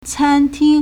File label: "can1ting1", Chinese